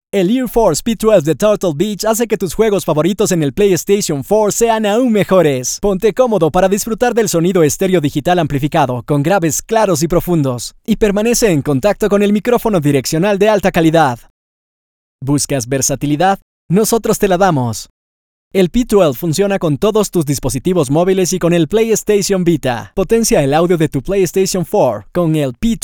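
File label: VIDEO Spanish EDU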